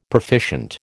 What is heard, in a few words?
"proficient", American